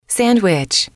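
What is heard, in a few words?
"sandwich", English, female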